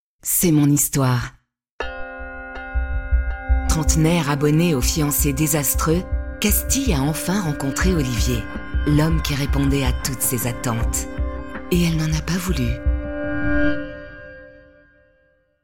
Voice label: french podcasts